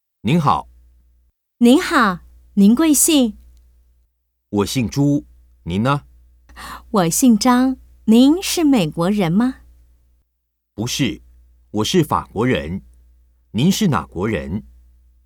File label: Dialogue, Chinese